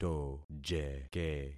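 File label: "tojeke", concatenated syllables; Affixing experiment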